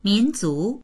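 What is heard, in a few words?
"min2zu2", Mandarin Chinese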